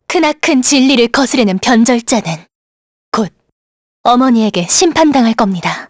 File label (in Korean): vox dia, kr; heroes; kingsraid